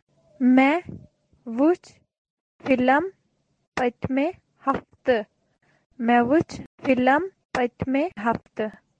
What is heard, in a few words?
Kashmiri; I saw the film last week.; Mae witch film patme haftuh.